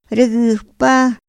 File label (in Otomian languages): ra gupa, Otomí; Días de la semana; INPI; INALI